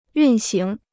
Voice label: "运行", Chinese